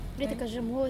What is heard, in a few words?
woman in cafe